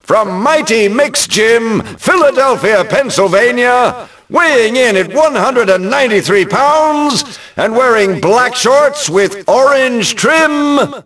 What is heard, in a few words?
announce, ENGLISH; Rocky; XBOX